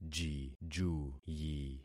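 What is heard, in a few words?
"jijuyi", concatenated syllables; Affixing experiment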